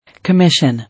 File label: "Commission", English